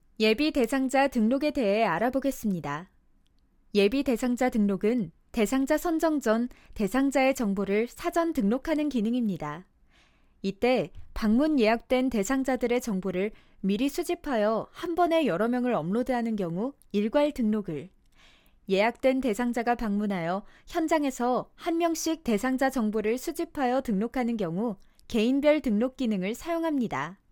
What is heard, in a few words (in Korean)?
내레이션 샘플 차분한